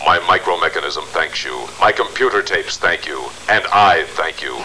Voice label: Thank you, robot; robot b9